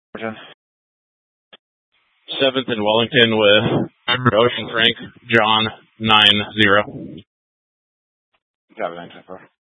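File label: Incident Tac 3, Scanner audio recording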